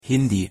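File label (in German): "hindi", German; pronunciation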